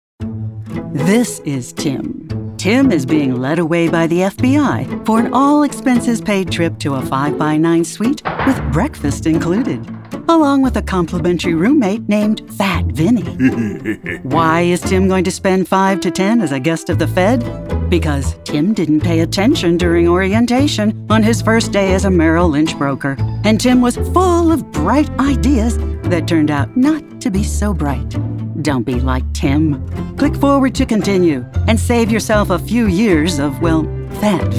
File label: E LEARNING